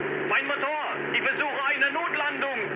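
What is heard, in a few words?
German damaged engine mood high, speech; War Thunder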